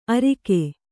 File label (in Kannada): "ಅರಿಕೆ", Kannada